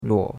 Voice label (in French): "ruo3", chinois; Cours-de-Chinois